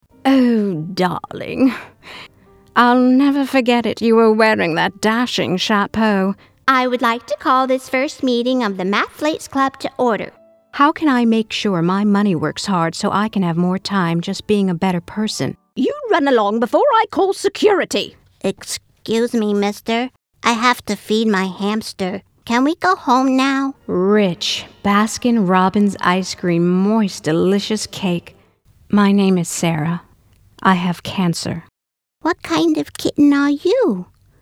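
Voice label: Commercial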